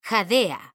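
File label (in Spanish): "jadea", Spanish, female; mekanta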